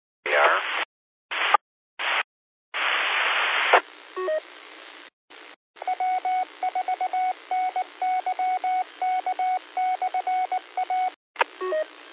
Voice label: qso rec simplex logic